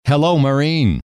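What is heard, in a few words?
"hello", male; trainer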